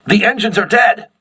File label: voice message lost propulsion, crew ships english